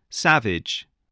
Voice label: "savage", english spelling